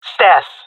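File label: location stairs, jungle male; vo; agents; Source Model Packs; Counter Strike Model Pack; CS2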